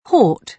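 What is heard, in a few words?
"port", British English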